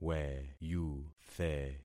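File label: "weyuthe", concatenated syllables; Affixing experiment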